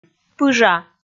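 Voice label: "пыжа", Komi, female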